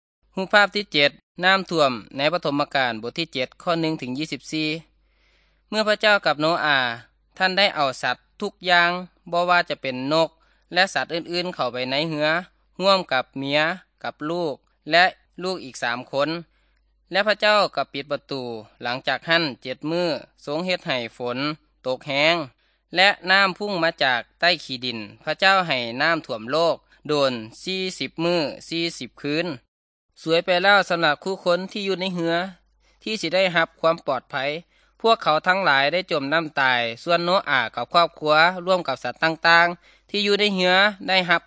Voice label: good news thai northeastern